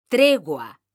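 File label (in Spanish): "TREGUA", Spanish, female; mekanta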